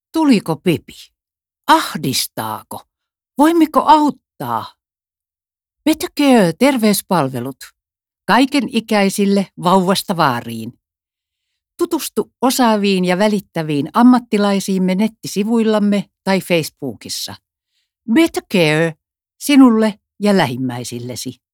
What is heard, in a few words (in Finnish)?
radio